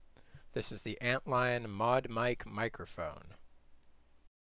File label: Microphone Test Samples; Antlion Modmic